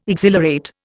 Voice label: "exhilarate", Indian

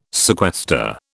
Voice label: "sequester", British

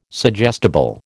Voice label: "suggestible", American

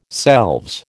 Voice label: "selves", American

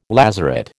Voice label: "lazaret", American

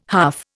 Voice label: "huff", Indian

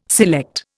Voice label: "select", Indian

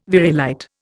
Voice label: "variolite", Indian

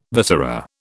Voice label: "viscera", British

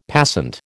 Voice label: "passant", American

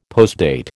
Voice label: "postdate", American

